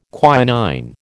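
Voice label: "quinine", American English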